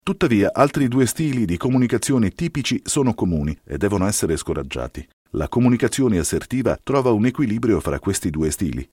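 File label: e learning voiceover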